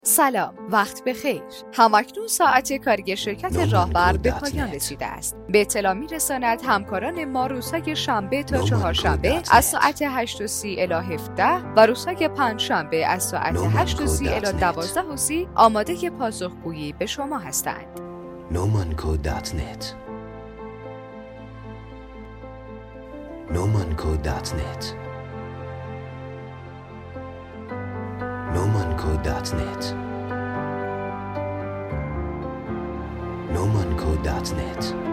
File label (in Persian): نمونه متن منشی تلفنی فارسی; شرکت راهبر